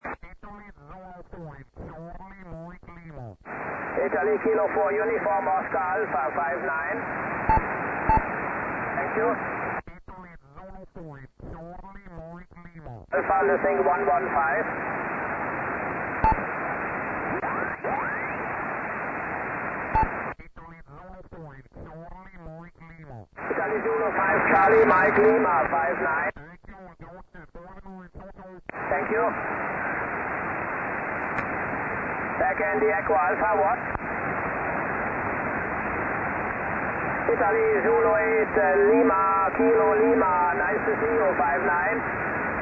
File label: 6M SSB